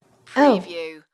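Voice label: Woman says Oh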